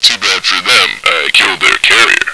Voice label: radio, male; action-quake2